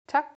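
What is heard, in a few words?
"Tak", Danish; Thanks!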